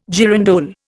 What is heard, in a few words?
girandole, Indian